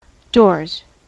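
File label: "doors", US English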